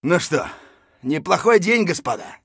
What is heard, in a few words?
scav mutter, voice; Escape from Tarkov; scav1